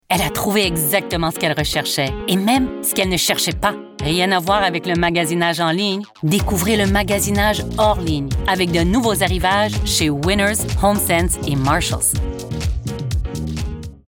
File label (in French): French commercial